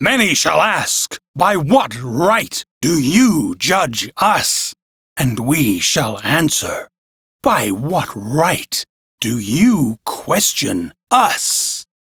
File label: Crew Idle, Male, en; Warframe; Railjack Crew; Arbiters Hexis